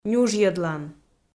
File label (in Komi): "нюжйӧдлан", Komi